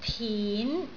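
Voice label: "tin2", Cantonese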